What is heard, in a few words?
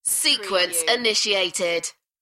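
British female Sequence initiated; beanstalk audio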